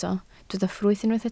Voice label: "ffrwyth", Welsh